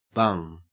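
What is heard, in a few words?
"bung", Australian English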